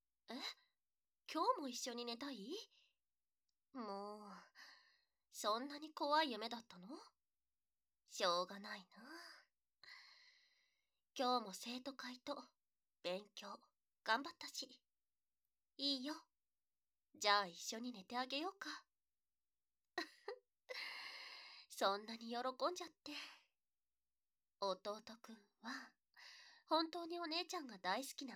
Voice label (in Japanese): バイノーラル; 今日も一緒に寝たいの?